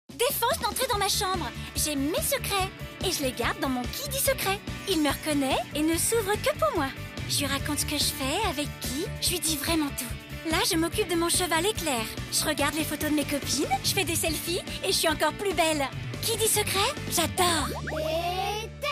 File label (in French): Pub TV; VTech; KidiSecrets